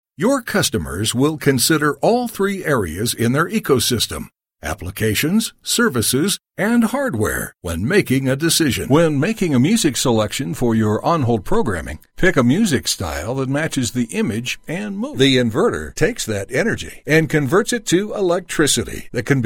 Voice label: English American e-learning